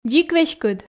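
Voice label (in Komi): "дзик веськыд", Komi, female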